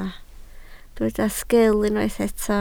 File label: "sgil", Welsh